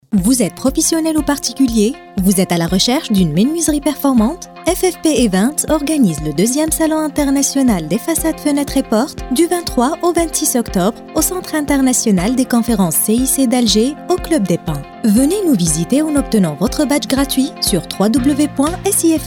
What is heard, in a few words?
french